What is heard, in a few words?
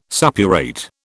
"suppurate", British